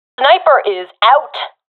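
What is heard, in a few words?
i killed sniper, professional female; vo; Counter Strike Model Pack; CS:GO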